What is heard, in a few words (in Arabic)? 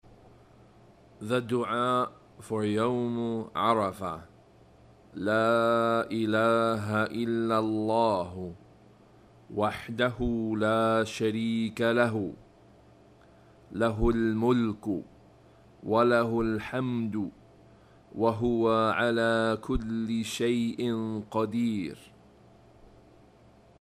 duaa arabic; for day of arafah